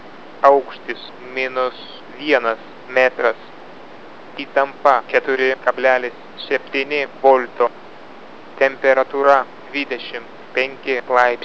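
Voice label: msg lt m, Lithuanian, male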